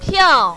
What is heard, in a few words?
"piao4", mandarin, female